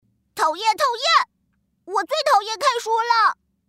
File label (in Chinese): 男童淘气